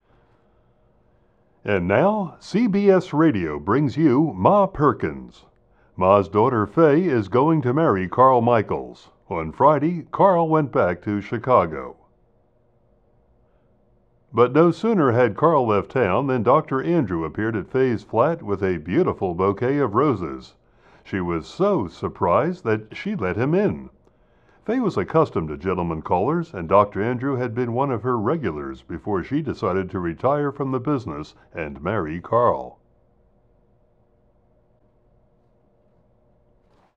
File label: announcer; Ma Perkins